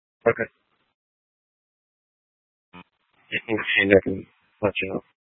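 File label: Tempe police department, scanner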